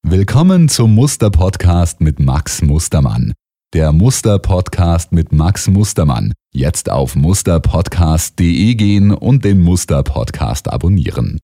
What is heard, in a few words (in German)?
PodCast Stimme